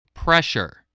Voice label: "pressure", voice, spelling

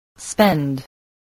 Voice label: "spend", English voice

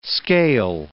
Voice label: "scale", English

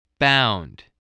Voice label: "bound", spelling

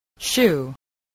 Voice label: "shoe", spelling

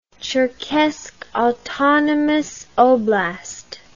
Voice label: "cherkessk autonomous oblast", English

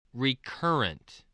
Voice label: "recurrent", spelling voice

